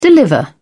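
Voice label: "deliver", British English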